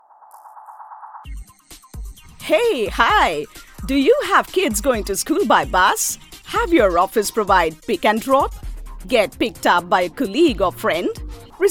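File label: explainer English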